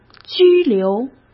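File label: "拘留", Mandarin Chinese; chinese-flashcards; hsk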